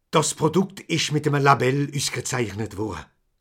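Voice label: "label", Haut Rhin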